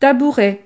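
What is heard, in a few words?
"tabouret", French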